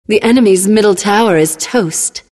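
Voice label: announcer tower fall enemy mid; Lina announcer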